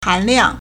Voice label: "han2liang4", Chinese